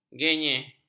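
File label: "genyeh", Malay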